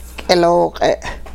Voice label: something s calf, hupa